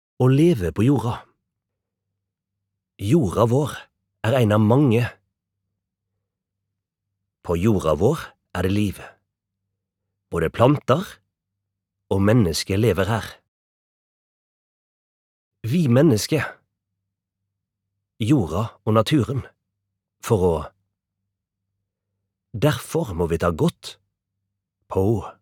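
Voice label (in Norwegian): fagbok nynorsk, Norwegian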